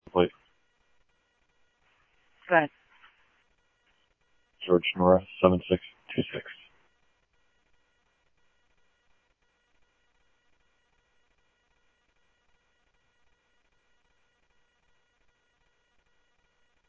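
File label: police radio scanner traffic, Mesa Simulcast; MesaPoliceDep; MESA PD 1 Fiesta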